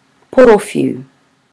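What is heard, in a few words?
"porowhiu", Māori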